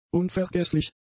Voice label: "unvergesslich", German; adjectives

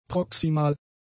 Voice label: "proximal", German; adjectives

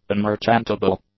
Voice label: "unmerchantable", English; adjectives

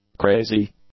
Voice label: "crazy", English; adjectives

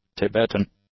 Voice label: "Tibetan", English; nouns

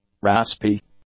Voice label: "raspy", English; adjectives

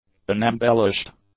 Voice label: "unembellished", English; adjectives

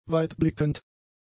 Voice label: "weitblickend", German; adjectives

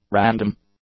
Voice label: "random", English; adjectives

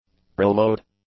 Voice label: "preload", English; nouns

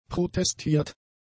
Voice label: "protestiert", German; adjectives

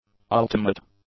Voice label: "ultimate", English; adjectives

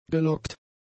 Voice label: "gelockt", German; adjectives

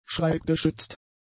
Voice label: "schreibgeschützt", German; adjectives

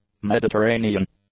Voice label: "mediterranean", english; adjectives

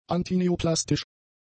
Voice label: "antineoplastisch", German; adjectives

